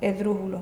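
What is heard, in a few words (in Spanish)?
"esdrujulo", Spanish, Andalusia, Sevilla; esdrújulo [eð̞ˈð̞ɾuxulo]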